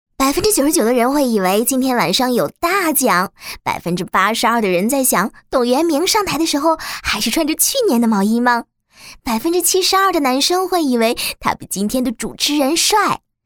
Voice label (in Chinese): 女19 病毒视频 年会 幽默, 国语女声配音; 年会开场幽默介绍